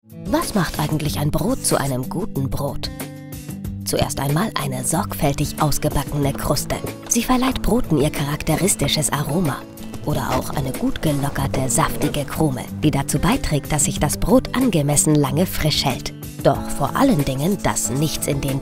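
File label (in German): erklaerfilm, Sprecherin; freie baecker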